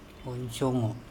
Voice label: "onSongo", andamanese; body

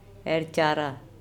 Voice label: "Ercara", Andamanese; woodcuts small pieces of

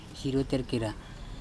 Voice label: "SirotErkera", andamanese; shallow sea

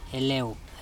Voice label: "eleu", andamanese; small child

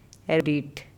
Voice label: "ErDiTh", Andamanese; pierce

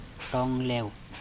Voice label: "TOng leo", andamanese; small tree

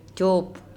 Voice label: cop fruit soury like orange, Andamanese

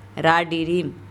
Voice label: "raDirim", andamanese; black pig

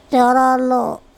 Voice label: "cOrOlo" parrot, andamanese

